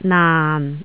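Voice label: "naan4", Cantonese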